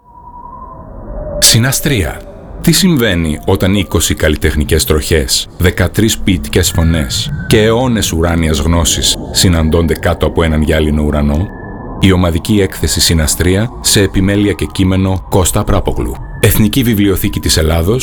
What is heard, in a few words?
synastry radio spot